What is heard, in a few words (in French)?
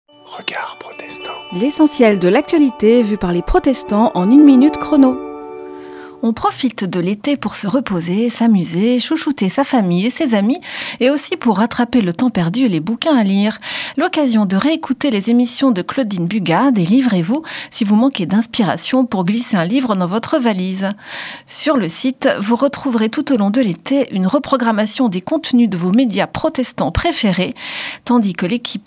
Spot radio; Regards protestants